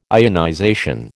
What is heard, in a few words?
"ionization", American